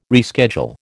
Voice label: "reschedule", American